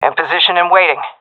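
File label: waiting here, female; vo; Counter Strike Model Pack; CS:GO; seal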